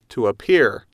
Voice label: to appear, English